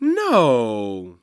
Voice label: "No", Human Male